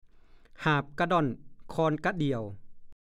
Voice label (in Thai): "หาบกะด่อนคอนกะเดี่ยว", ภาษาโคราช; (สำนวน) ทำมาหากินเลี้ยงชีพ